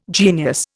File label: "genius", Indian